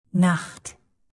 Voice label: nacht, alphabet de native

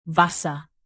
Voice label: wasser, native; alphabet de

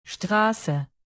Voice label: straße, native; alphabet de

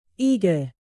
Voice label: igel, alphabet de native

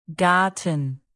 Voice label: garten, native; alphabet de

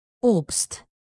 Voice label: obst, alphabet de native

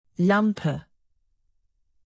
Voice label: lampe, alphabet de native

